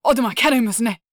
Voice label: VO angry

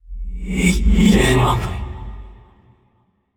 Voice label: in pain; aragami

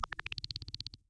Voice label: Chatter

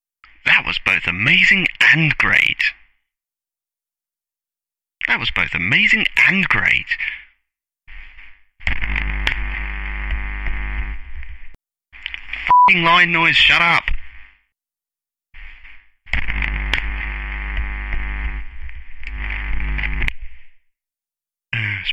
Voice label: Narration Outtakes; Really Big Sky

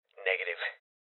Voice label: Voices Refuse Male Young

